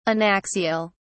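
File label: "anaxial", English, British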